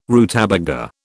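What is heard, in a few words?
"rutabaga", British English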